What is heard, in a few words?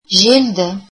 windy, Kazakh